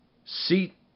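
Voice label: "seat", English; RhymeTest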